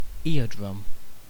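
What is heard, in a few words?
"eardrum", British English; pronunciation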